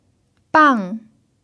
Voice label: "bang4", chinese

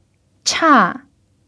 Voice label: "cha4", chinese

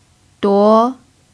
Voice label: "duo2", chinese

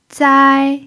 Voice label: "zai1", Chinese

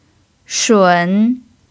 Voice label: "shun3", Chinese

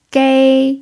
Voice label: "gei1", chinese

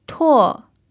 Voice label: "tuo4", chinese

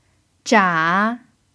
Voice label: "zha3", Chinese

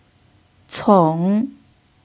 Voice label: "cong3", chinese

